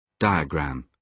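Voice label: "diagram", British English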